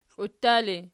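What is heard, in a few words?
"kliuttali" nominative, Archi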